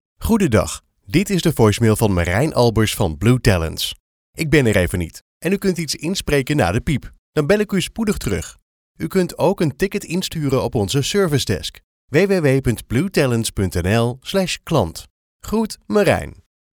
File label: Voicemail; Blue Talents